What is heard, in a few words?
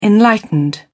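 "enlightened", British English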